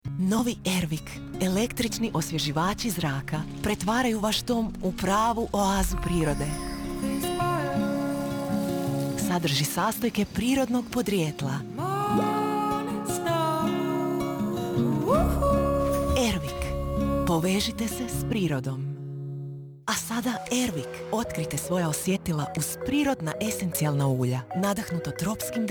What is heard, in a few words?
Commercial, croatian